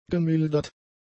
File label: "gemildert", German; adjectives